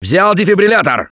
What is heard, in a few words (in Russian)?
defibrillator, left4dead2 russian, Survivor Voice; Gambler